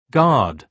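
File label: "guard", British English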